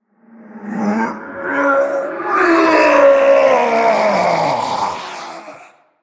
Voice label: Male Orc, Death; 死亡猎手雷克萨; ▶This hunt is yours.

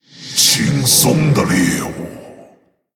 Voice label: Male Orc, My quarry nears.; Attack; ▶轻松的猎物。; 死亡猎手雷克萨; 攻击

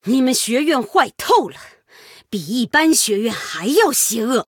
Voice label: Female Human Threaten; 威胁; 对决模式; 渗透者莉莉安

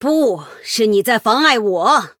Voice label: Female Human Start Mirror, Opening Remark(Mirror); 开场白(镜像); 对决模式; 渗透者莉莉安

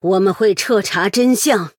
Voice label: Female Human Picked, Selection in Duels; 对决模式选中; zh; 渗透者莉莉安(Infiltrator Lilian); 不要搞特殊

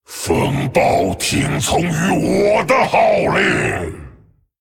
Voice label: Male Dragon, MIRROR GREETINGS; ▶风暴听从于我的号令！ ▶The storm obeys MY demands!; 风暴巨龙迦拉克隆; 问候(镜像)

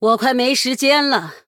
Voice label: Female Human Time, Running out of time; 对决模式; 渗透者莉莉安

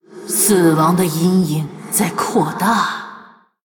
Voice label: Female BloodElf Thinking; ▶死亡的阴影在扩大…… ▶Death's shadow grows...; 虚空之影瓦莉拉; 思考2; Thinking2

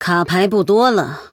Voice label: Female Human Low Cards, Almost out of cards; 对决模式; 渗透者莉莉安; 卡牌快抽光

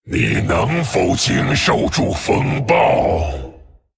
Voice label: Male Dragon Greetings; ▶你能否经受住风暴？ ▶Can you weather the storm?; zh; 问候; 风暴巨龙迦拉克隆

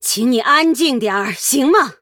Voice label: Female Human Start; zh; 渗透者莉莉安; 对战：终身教授图拉扬; Start:Turalyon, the Tenured

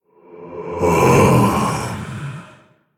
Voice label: Male Orc Thinking; 思考1; ▶嗯...; ▶Hmmm...; 死亡猎手雷克萨; Thinking1

